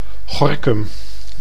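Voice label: "Gorkum", Dutch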